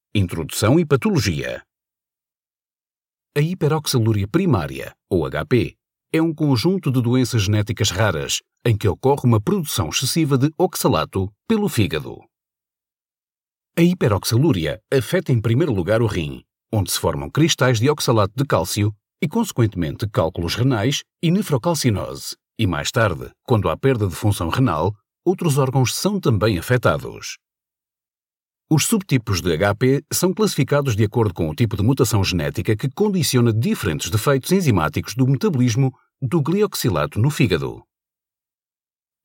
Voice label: Portuguese voiceover documentary

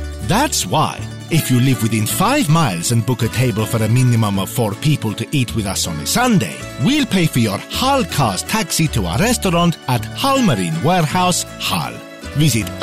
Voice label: italian parody

